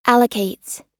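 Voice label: "allocates", American English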